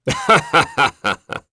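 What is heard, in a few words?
Vox Happy, voices heroes English; Lusikiel; kingsraid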